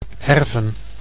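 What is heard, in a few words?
"erven", Dutch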